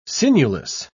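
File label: "sinewless", British English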